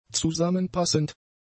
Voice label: "zusammenpassend", German; adjectives

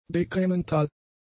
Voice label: "dekremental", german; adjectives

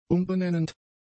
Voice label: "umbenennend", German; adjectives

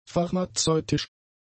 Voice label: "pharmazeutisch", German; adjectives

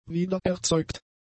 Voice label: "wiedererzeugt", German; adjectives

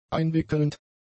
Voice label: "einwickelnd", german; adjectives

